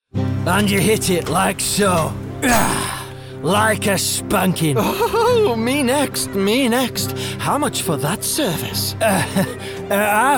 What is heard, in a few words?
AD Gaming; reel